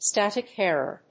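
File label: "static error", English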